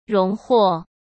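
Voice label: "荣获", Mandarin Chinese; chinese-flashcards; hsk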